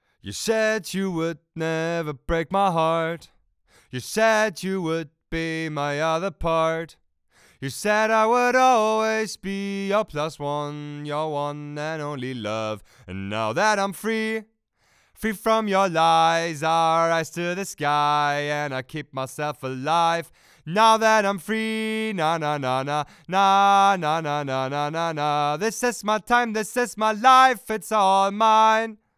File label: vocal booth